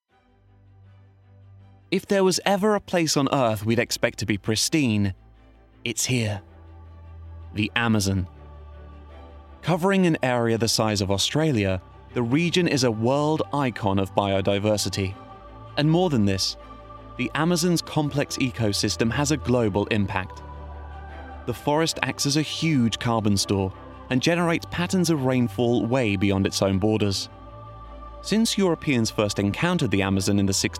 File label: Documentary; Amazon; Vocal Point